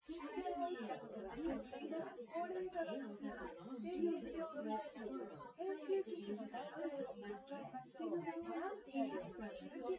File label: female, synthconv, src; SiSEC08; SiSEC underdetermined